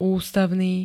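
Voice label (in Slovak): "ústavný", Slovak; ústavný2 príd.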